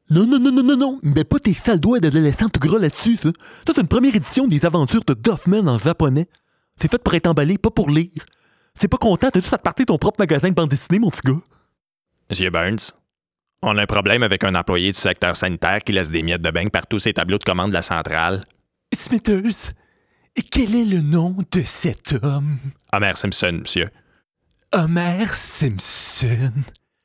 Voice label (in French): demo voix imitations personnages